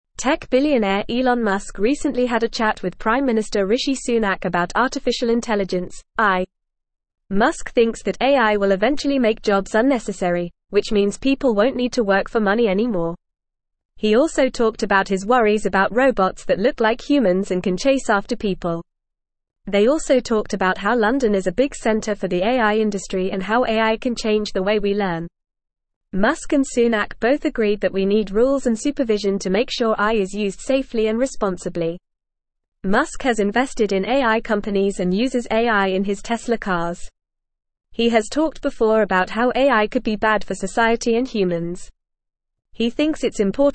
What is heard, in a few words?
English Upper Intermediate FAST Reading; Elon Musk and Rishi Sunak discuss AIs impact; English Newsroom